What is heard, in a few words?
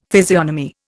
"physiognomy", Indian; Dictionary